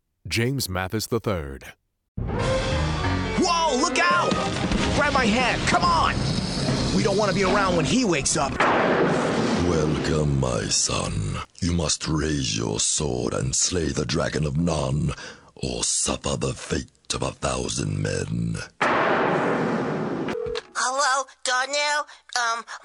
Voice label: animation men